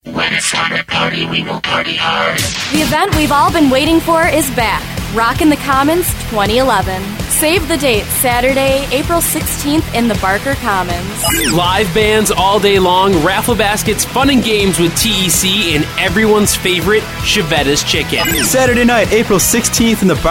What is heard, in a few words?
Radio Promo